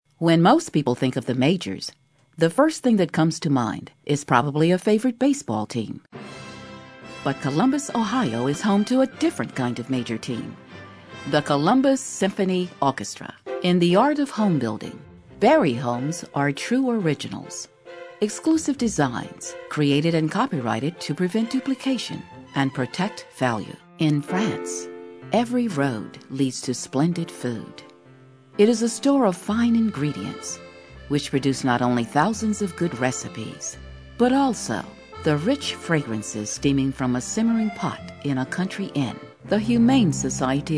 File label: Narrations